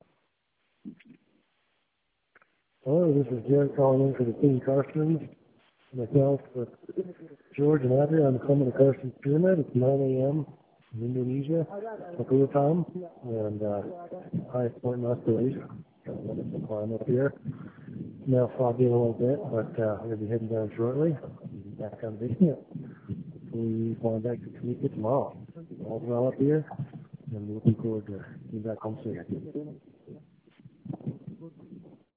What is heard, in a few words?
voicemail